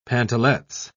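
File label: "pantalettes", British English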